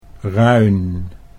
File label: "ruin", Dutch